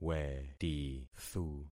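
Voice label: "wetithu", concatenated syllables; Affixing experiment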